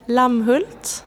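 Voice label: "Lammhult" Swedish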